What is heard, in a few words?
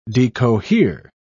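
"decohere", British English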